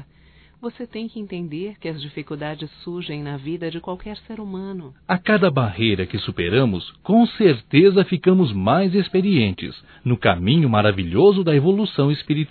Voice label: OTIMISMO AMIGO DUAS VOZES, Só Voz; Telemensagens; AMIZADE; fem

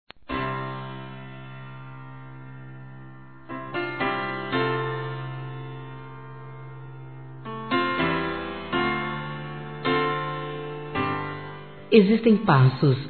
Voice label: Noivado Feminino

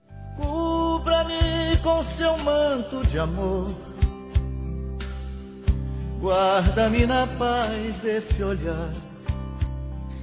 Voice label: Neutro Católico Feminino, Telemensagens Aniversários; Aniversários diversos

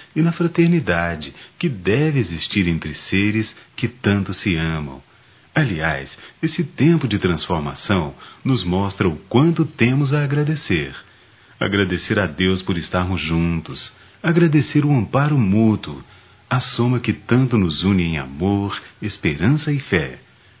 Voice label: Páscoa Romantica, masc; Telemensagens 2017

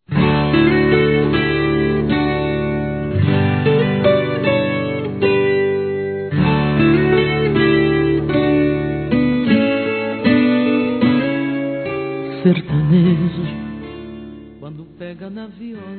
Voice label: Aniversário Tia F, Telemensagens; Aniversários; Aniv Familiar

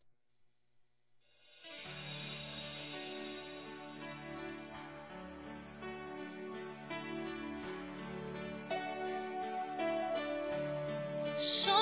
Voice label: ROMANTICA OUSADA, fem; Telemensagens; Sensuais

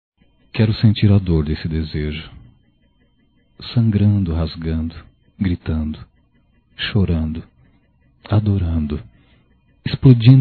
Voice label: Erótica Leve Masculino

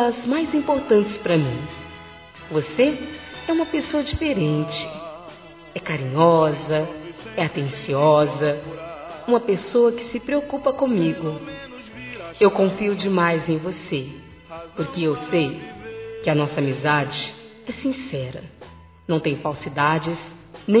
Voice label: Amiga Seu Lado Feminino, Telemensagens; Amizade

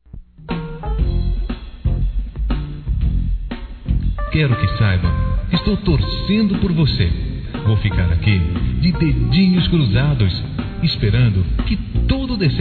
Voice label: Desejo Sorte Masculino, Telemensagens Amizade

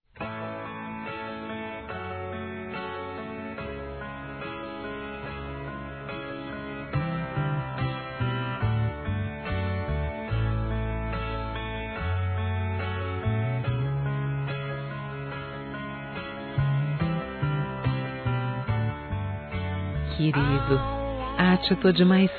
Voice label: ROMANTICA F

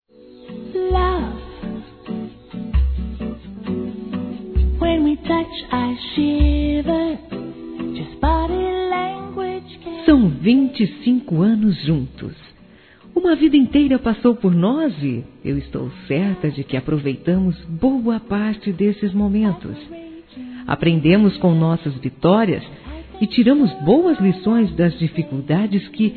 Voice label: Bodas de Prata Romântica Feminino; Telemensagens; Telemensagens 2017

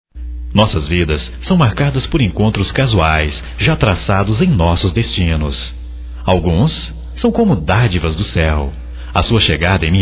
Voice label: Agradecendo Amizade Masculino; Convites e Agradecimentos; Telemensagens 2017

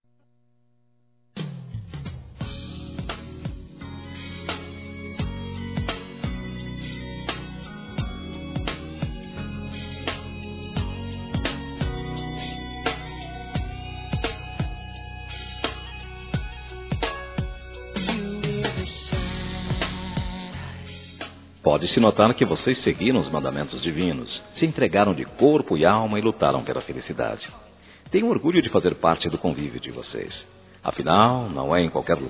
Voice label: CASAMENTO TERCEIRO M; Aniversários; ANIVERSARIO romantico; masc

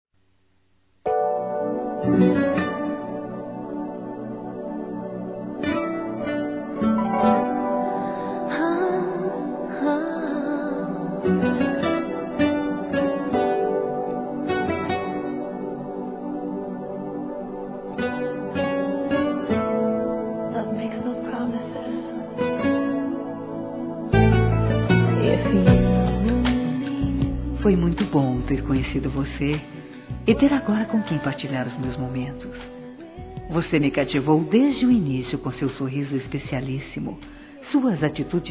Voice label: INICIO DE NAMORO, fem; Telemensagens; Telemensagens 2017

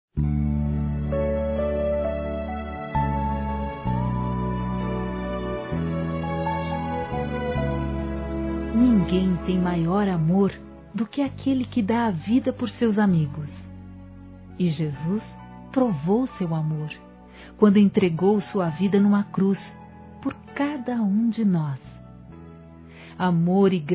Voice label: DEUS AMA VOCÊ F, Telemensagens, Gospel; fem; Telemensagens 2017

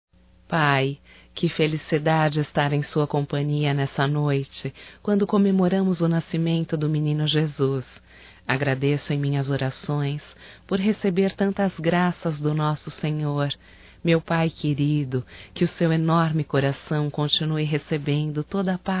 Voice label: Natal e Ano Novo Pai Feminino; Telemensagens 2017

